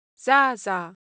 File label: Japanese Sound, Ideo Phones